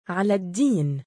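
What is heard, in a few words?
male "3alaaddiin", Arabic; Alaaddiin、Aladdiin、Alaaddin、Aladdin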